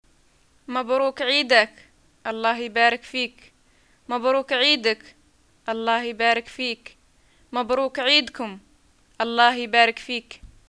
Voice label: greeting eid and response, arabic

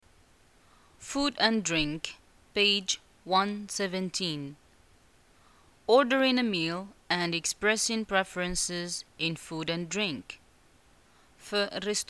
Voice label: dialogue, Arabic, North African, Moroccan

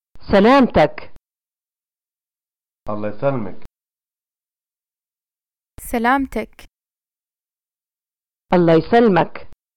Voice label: greeting get well, arabic

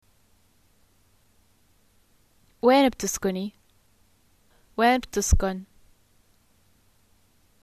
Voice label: where do you live, arabic, jd

